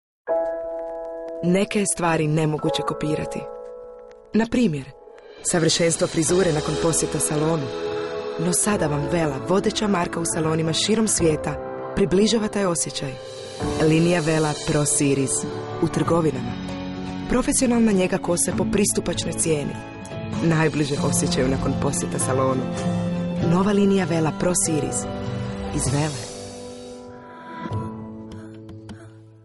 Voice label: female croatian commercial; wella

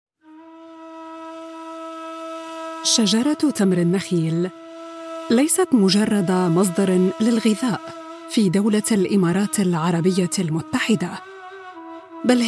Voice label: female arabic documentary; Devine Voices

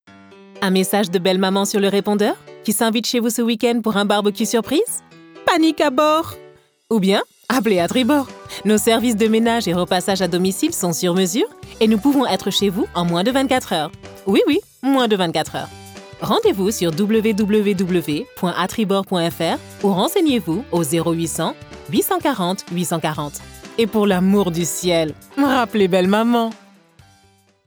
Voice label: female French radio promo; tribord

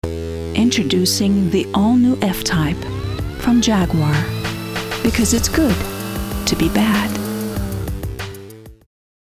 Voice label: female transatlantic accent commercial; jaguar

